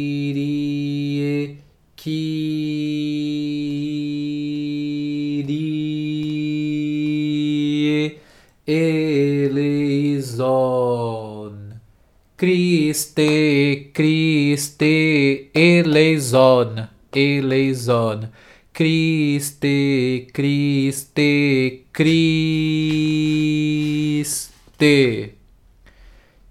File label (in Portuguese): kyrie leitura ritmica